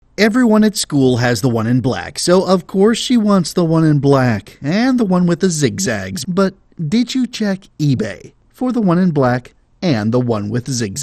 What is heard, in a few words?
Commercial, Male voices